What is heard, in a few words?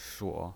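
"shuo", Mandarin Chinese pinyin, third tone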